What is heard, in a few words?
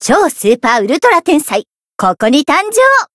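Voice growth Japanese; ashuthia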